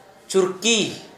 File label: chair, Gta, Dialect: Hill; chair, bench; household